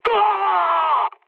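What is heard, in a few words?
damaged pilot, mood high, French; War Thunder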